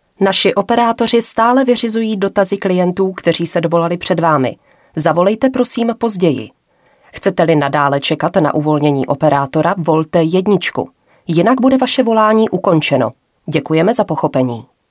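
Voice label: Female Czech IVR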